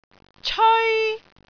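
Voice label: "ceoi1", Cantonese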